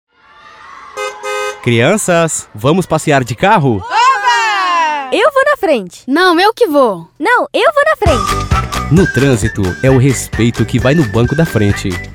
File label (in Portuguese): Spot Transito criancas